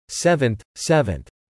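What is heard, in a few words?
"Seventh 7th", English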